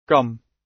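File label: "gum" British; Flora and fauna, agriculture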